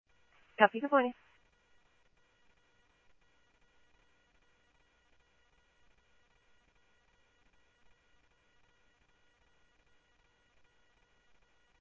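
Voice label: Mesa Police Department, scanner audio trunk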